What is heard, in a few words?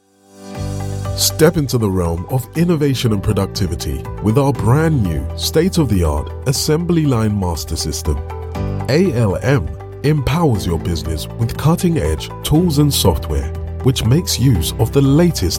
multicultural voice explainer